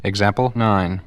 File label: "example nine", male; Voice; numbers and letters